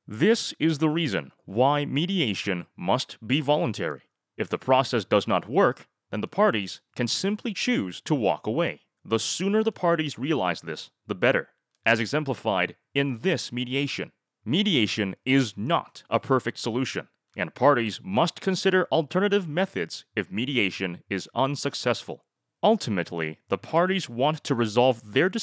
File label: male canadian voice over narrative